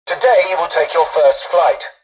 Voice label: tutorial dialogue, english; War Thunder